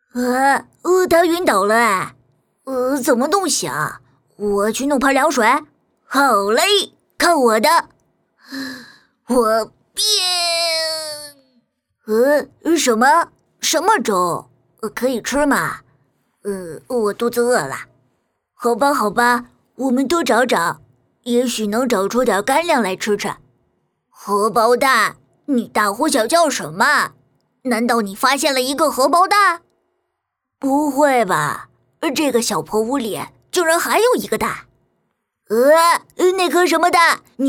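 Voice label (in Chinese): 动画动漫憨憨男童女播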